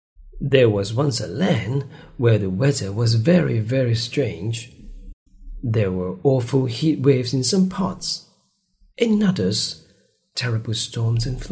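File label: ZH AccEN Mandarin, Mandarin accented English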